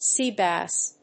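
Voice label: "Sea Bass", English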